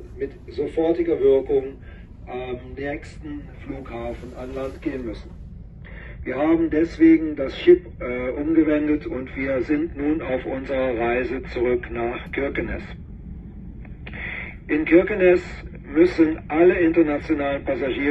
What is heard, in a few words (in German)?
Vardo Durchsage Hurtigruten